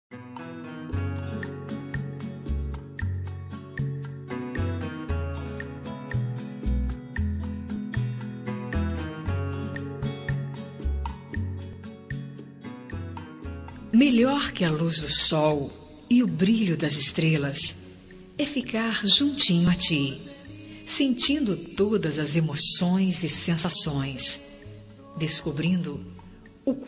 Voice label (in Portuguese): Romântica Estou Te Amando